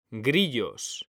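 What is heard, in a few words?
"grillos", Spanish, male; mekanta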